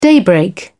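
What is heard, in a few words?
"daybreak", English, British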